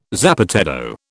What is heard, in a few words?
"zapateado", British